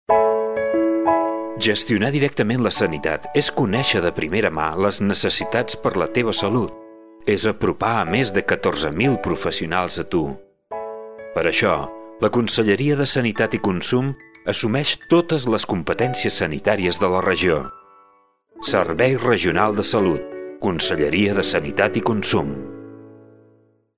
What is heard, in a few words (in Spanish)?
catalan